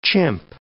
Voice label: "chimp", English